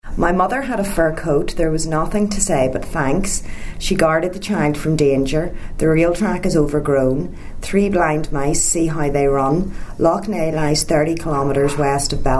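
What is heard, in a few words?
sentences, Irish English, The North of Ireland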